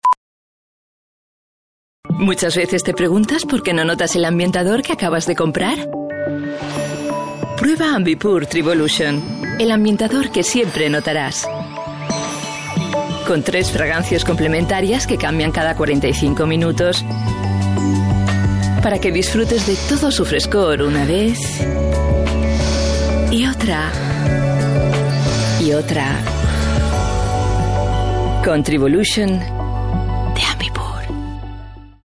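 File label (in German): Spanish Werbung Freundlich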